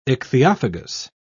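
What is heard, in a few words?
"ichthyophagous", British English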